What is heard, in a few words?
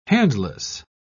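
"handless", British English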